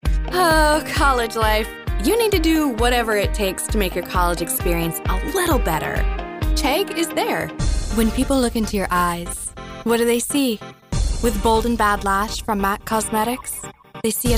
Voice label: Commercial Radio

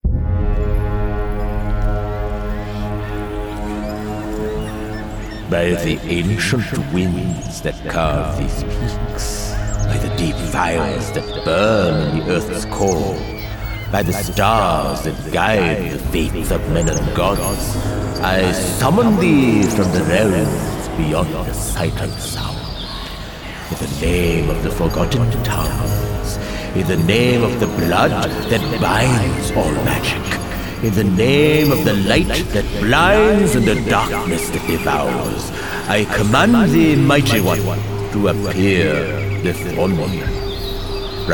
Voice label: Fantasy RPG Summoning British Indian

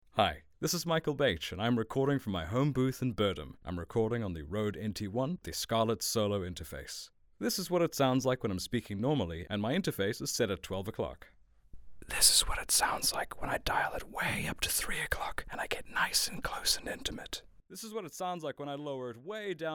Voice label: Raw Studio Sample, warm; based in Birdham in the South Wes

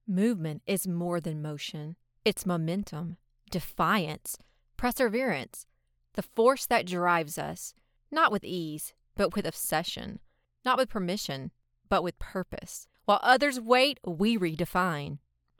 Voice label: VO Vehicle Anthem

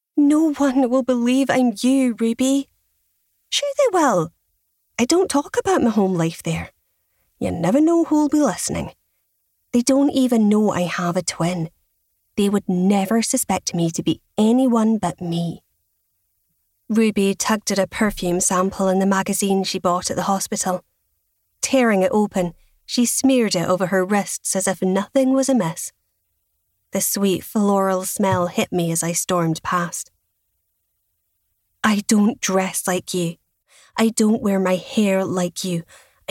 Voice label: Scottish accent

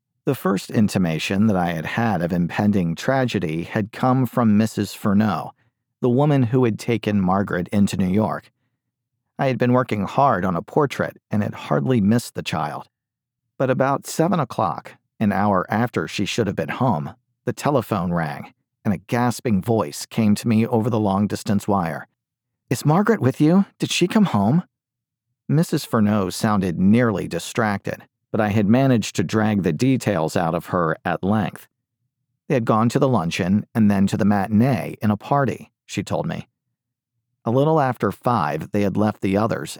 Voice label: Mystery Narration; audiobook narrator and corporate voice-over talent based in Dallas–Fort

